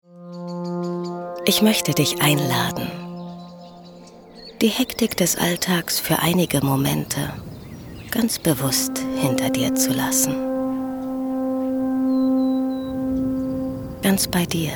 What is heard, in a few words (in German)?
Meditation; Lebensspur Lech